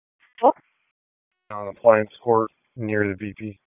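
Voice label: Police Northeast District Dispatch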